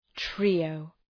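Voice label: "trio", English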